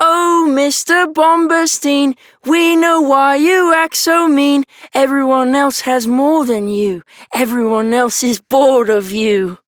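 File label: Childrens Rhymes, Child Male, English; Duviri; Envy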